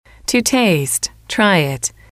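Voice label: "to taste try it", English, female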